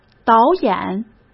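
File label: "导演", Mandarin Chinese; hsk; chinese-flashcards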